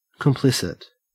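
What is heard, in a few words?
"complicit", Australian English; pronunciations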